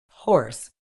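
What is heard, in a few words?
"hoarse", British English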